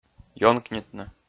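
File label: "ёнкнитны", Komi, male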